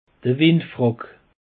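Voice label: "windfrack", Alsatian; peche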